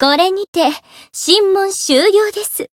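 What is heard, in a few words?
Voice win Japanese; rosetta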